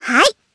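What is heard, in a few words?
voice, Japanese; Hanus; kingsraid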